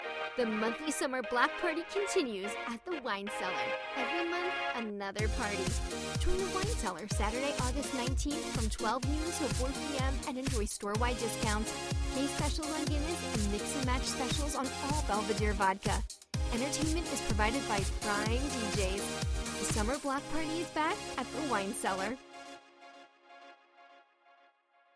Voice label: Wine Cellar Commercial